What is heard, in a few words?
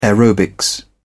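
"aerobics", British English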